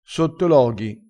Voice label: "sottologhi", vocabolario dialettale

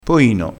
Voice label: "poino", crespinese

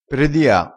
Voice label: "predia", crespinese

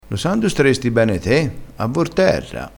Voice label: "a vorterra", Crespinese

